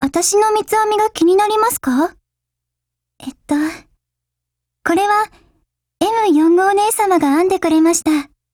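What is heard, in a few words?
DIALOGUE, Japanese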